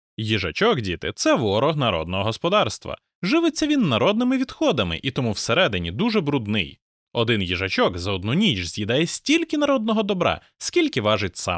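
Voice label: Samson Q7x processed, microphone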